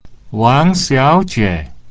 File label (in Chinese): wang2xiao2jie3, Chinese; Miss Wang